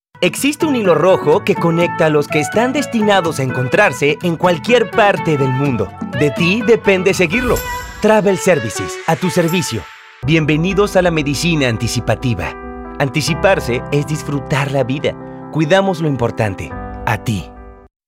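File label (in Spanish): energica institucional, espanol neutro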